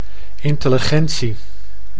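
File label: "intellegentie", Dutch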